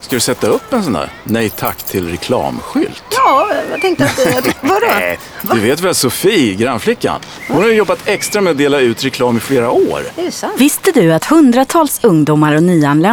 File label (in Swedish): radioreklam; grannflickan